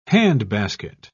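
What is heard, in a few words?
"handbasket", British English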